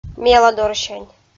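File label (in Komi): "меладорсянь", Komi-Zyrian, female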